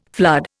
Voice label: "flood", Indian